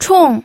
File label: "chong4", pinyin